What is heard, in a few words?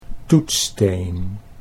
"toetssteen", Dutch